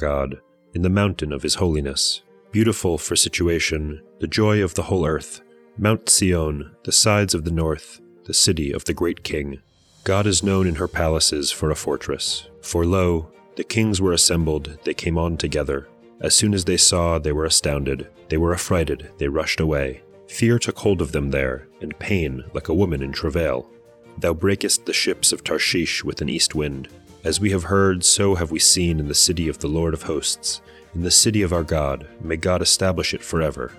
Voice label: poetry and prayer psalm english